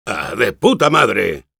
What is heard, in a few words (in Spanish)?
Engineer jeers es